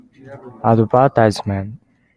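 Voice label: "advertisement", Japanese, male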